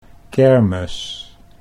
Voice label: "kermis", Dutch

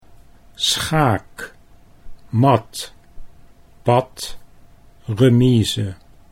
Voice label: "schaakmat", Dutch; chess

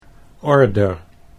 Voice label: "order", Dutch

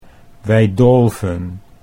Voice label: "wij dolven", Dutch

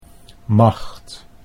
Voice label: "macht", Dutch

